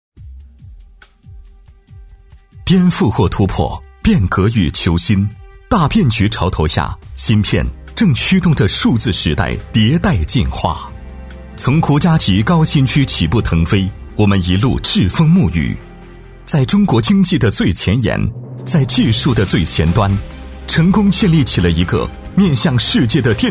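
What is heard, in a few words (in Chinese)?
男 企业宣传 大气时尚; 厚重磁性; 高端大气; 杭州领芯微电子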